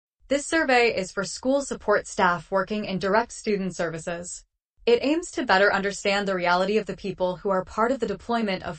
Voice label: Classroom Assistant audio English; Classroom Assistant survey